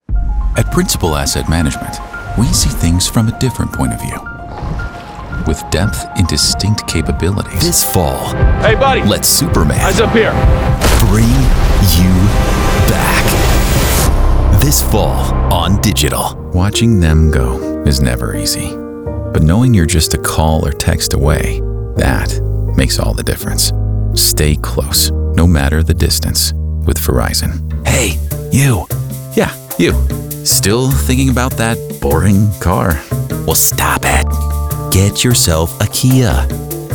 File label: commercial